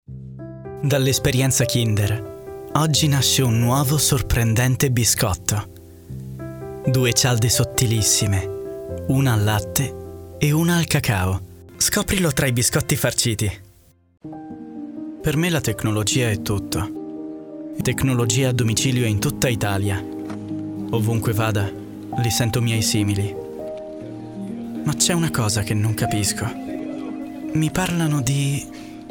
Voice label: spot emotional